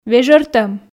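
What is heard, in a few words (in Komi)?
"вежӧртӧм", Komi, female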